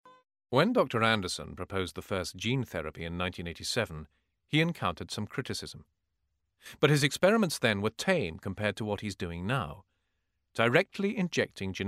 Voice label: Narration